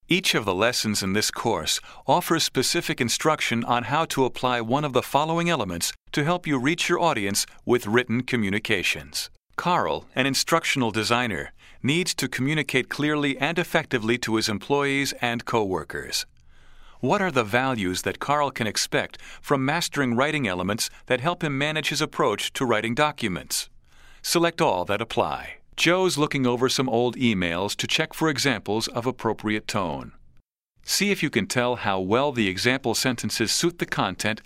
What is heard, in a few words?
vo elearning